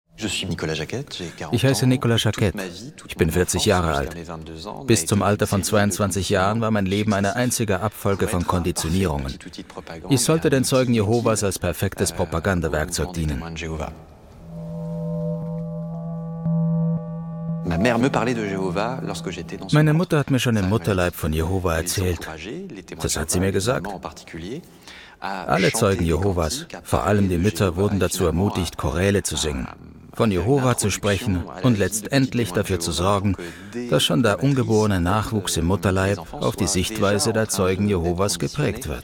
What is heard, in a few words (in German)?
dokumentation; arte; der lange weg hinaus; Muttersprache Deutsch, Deutsch/Schweizerdeutsch